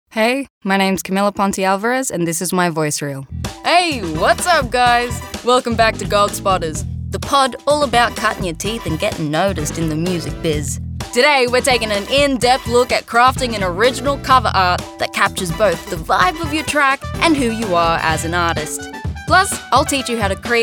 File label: VO Commercial